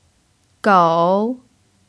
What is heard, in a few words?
"gou3", Mandarin pinyin